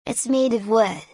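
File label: "It's made of wood", English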